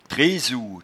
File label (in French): "tresoue", patois jura